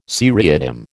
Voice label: "seriatim", American